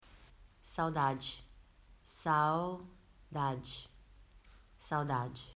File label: Pronunciation Portuguese SAUDADE